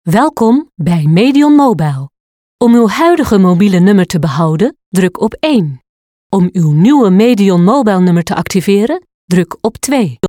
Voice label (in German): niederlaendisch telefon; sprecher